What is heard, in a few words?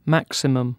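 "maximum", British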